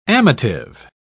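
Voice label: "amative", English, British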